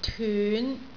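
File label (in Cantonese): "tyun5", Cantonese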